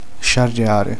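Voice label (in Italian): "litigare", Italian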